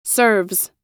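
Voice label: "serves", US English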